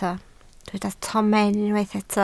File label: "tomen", Welsh